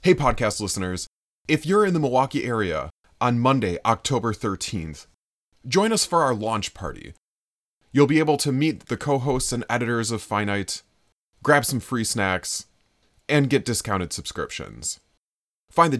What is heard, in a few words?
launch party announcement; finite launch party